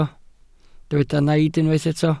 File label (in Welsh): "naid" Welsh